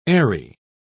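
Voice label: "eyry", British English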